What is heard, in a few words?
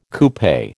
"coupe", American